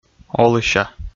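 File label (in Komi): "олыся", Komi, male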